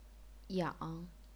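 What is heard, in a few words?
"yang", chinese pinyin, third tone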